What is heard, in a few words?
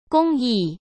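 "公益" Mandarin Chinese; chinese-flashcards; hsk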